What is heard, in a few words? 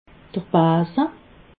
"base", alsace